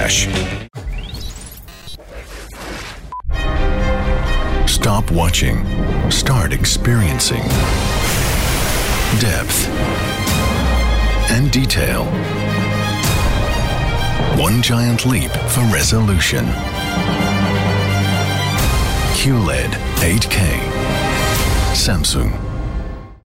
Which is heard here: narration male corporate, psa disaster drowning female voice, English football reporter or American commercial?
American commercial